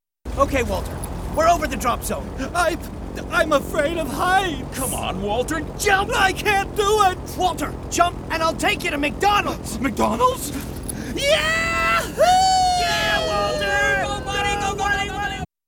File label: Radio Spot Voiceover; McDonalds; Dropzone